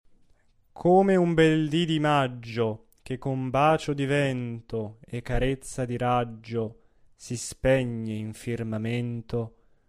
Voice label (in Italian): espressivo; Andrea Chenier; Come un bel di di maggio